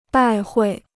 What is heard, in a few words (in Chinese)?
"拜会", Chinese